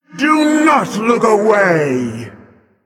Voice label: Female Elemental Attack

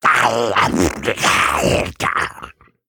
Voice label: Male Murloc Play

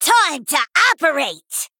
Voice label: Female Goblin Attack

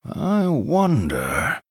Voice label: Thinking

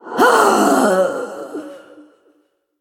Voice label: Female Dragon Death

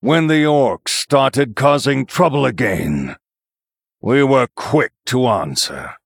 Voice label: Male Human

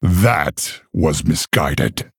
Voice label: Male Orc Oops

